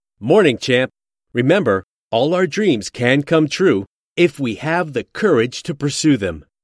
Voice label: Male all dreams